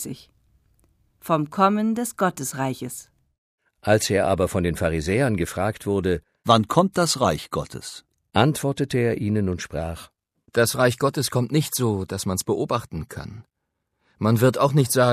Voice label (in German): Lesung des Evangeliums, lukas 17 20-30; Vom Kommen des Gottesreiches; patmos-gemeinde